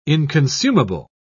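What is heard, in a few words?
"inconsumable", British English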